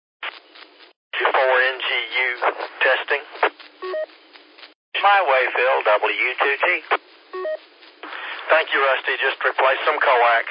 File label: qso rec simplex logic